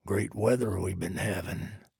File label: trader male like greeting